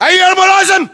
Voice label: rank lieutenant, battle chatter, english